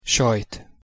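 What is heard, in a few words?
"sajt", Hungarian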